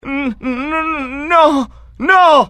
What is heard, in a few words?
fear, IT; mohaa; dialogue